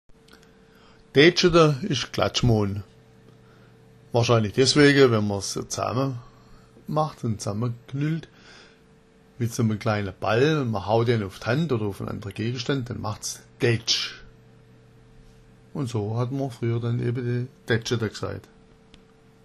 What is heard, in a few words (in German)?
daetscheda, schwaebisch